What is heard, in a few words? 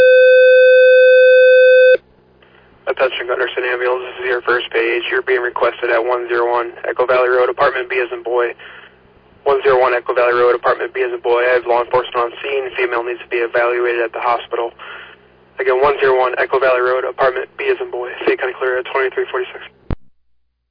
Ambulance, dispatch